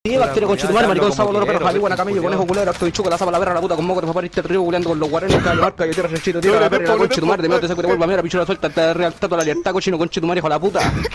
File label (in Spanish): chileno insultando; warzone